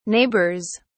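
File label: "neighbors", British English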